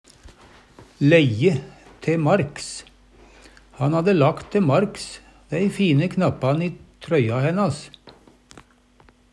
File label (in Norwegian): "leggje te marks", Numedalsmål; Han hadde lagt te marks dei fine knappan i trøya hennas.